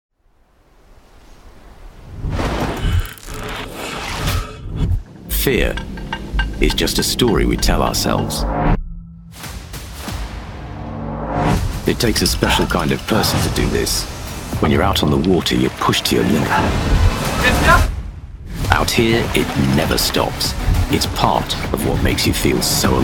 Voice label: english british movie trailers